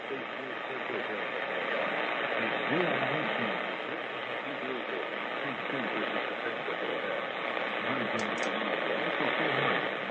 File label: R. Nacional Brazilia